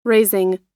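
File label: "raising", American English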